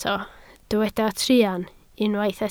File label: "truan", Welsh